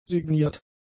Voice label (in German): "signiert", German; adjectives